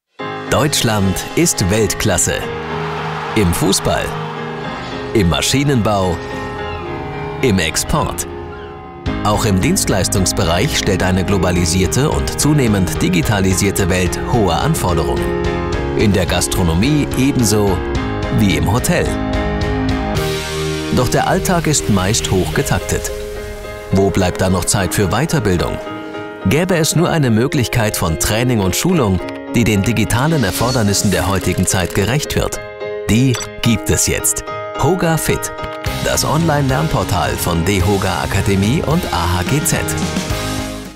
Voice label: Sprecher produktfilm; dehoga

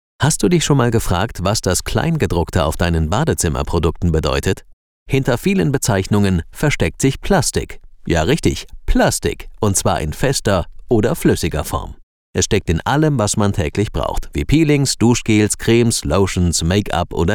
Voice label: Sprecher erklaerfilm; plastik

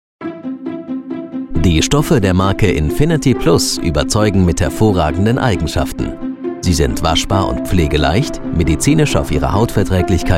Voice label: produktfilm stoffe; infinity

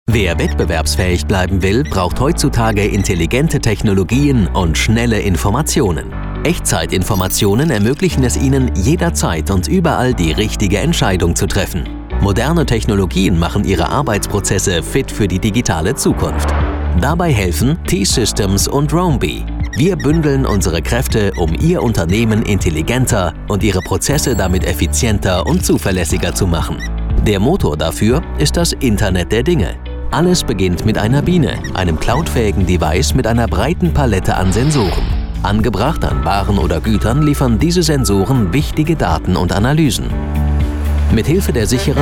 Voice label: sprecher erklaerfilm; t-systems

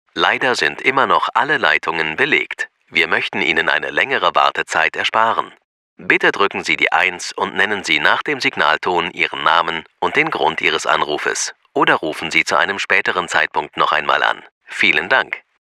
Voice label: telefonansage; DE

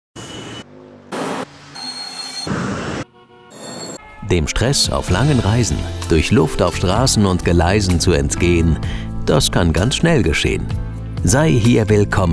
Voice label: sprecher imagefilm gedicht hotel

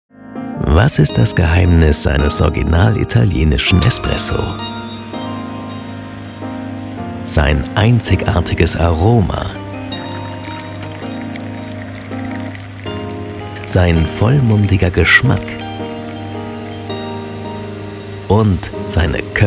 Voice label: Sprecher produktfilm; espresso

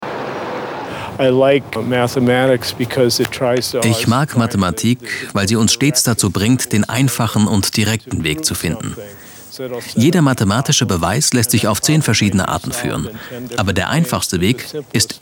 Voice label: Sprecher voiceover; mathematik

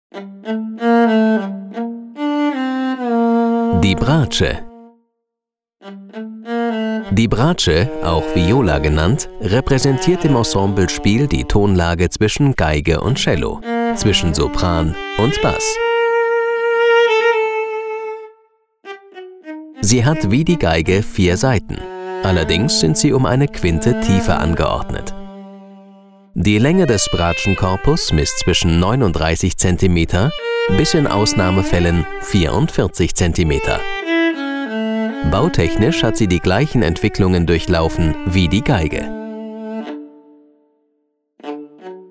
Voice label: sprecher audioguide; bratsche

